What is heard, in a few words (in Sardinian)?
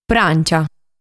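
"Prància", Sardinian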